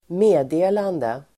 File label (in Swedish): "meddelande", Swedish